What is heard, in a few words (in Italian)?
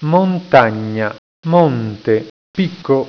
"montagna", italiaans; plaatsen